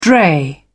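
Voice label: "dray", British English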